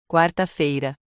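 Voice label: "Quarta-feira", Portuguese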